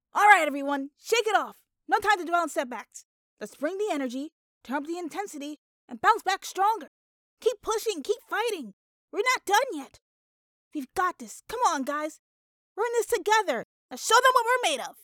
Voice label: Sporty Girl; Sassy Female Character